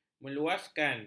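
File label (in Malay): "meluaskan", Malay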